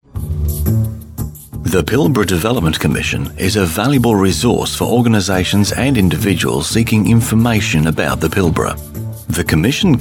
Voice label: government messages on hold

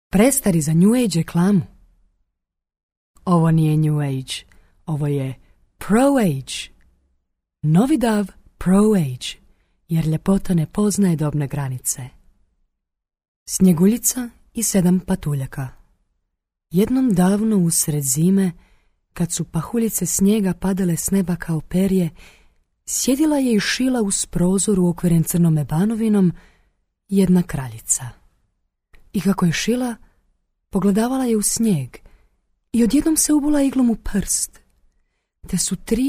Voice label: croatian female voice

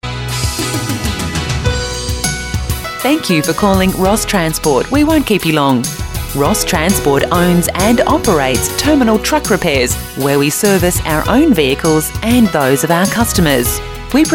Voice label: onhold

